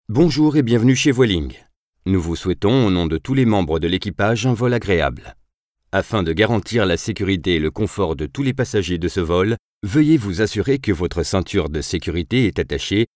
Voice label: male french airplane comercial; ideasonora